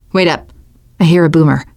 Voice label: heard boomer, teen girl